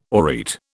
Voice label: "aureate", British